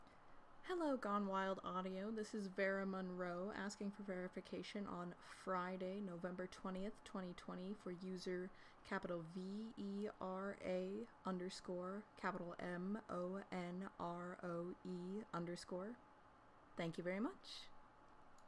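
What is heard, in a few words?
Female verification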